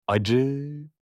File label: bitter in turkish